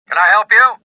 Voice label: suspicious; XBOX

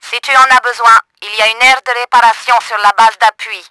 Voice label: LOW HEALTH, french; Battle Engine Aquila; XBOX

